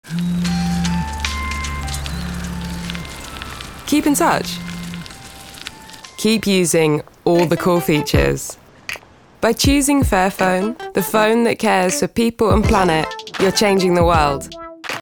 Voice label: TVC; Fairphone

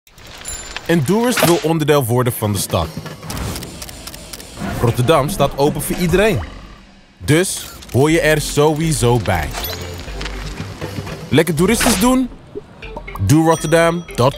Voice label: NL; Dorist Rotterdam

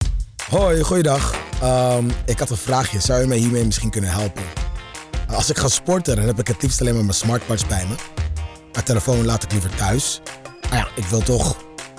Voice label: testimonial; Vodafone

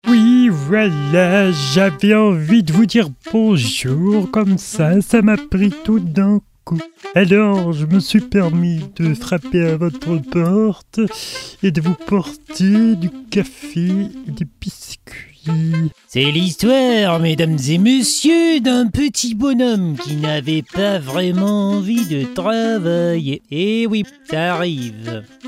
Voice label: Francais Character Animation, voice-overs

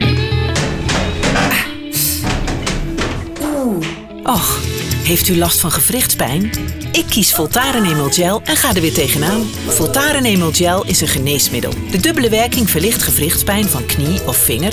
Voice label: TVC; Voltaren

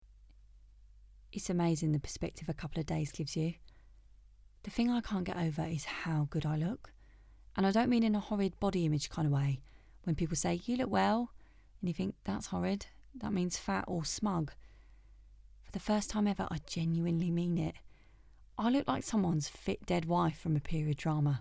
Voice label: London accent; DUST

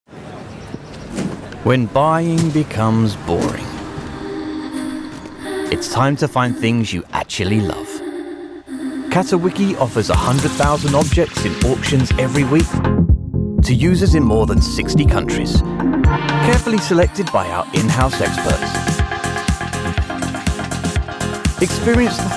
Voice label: UK; Catawiki

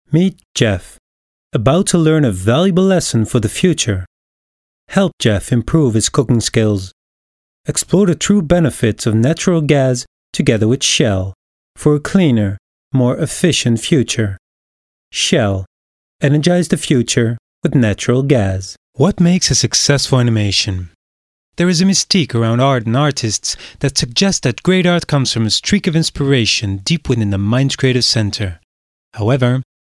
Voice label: English Voice Over

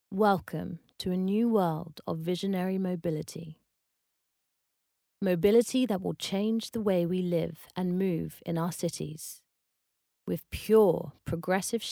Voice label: UK; BMW demo

